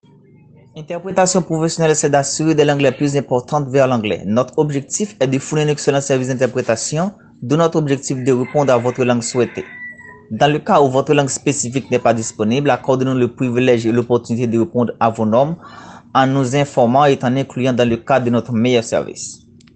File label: french